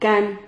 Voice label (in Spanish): "cán", Silabas